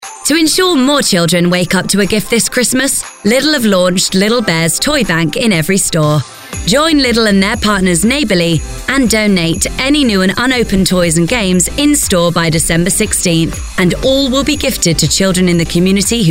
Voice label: Advert, Heart Radio; Lidl Toy Bank